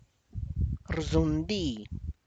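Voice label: tlacochahuaya, Zapotec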